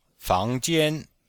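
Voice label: "fang2jian1", Chinese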